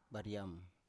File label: "bariam" Biak